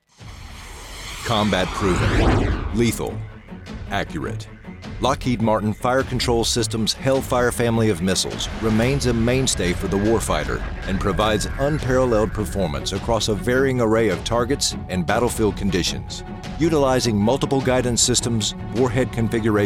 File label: Corporate Narration